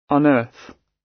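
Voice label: "unearth", British English; Time, history; Thời gian, lịch sử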